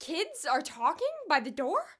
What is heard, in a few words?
surprised; flowtron; ravdess; prior